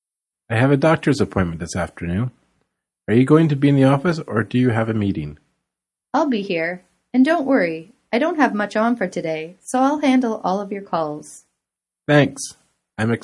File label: Conversation A